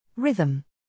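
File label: "rhythm", English word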